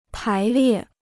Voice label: "排列", Chinese